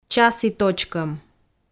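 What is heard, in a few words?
"часі точкӧм", Komi, female; kpv audio dict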